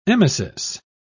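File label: "emesis", British English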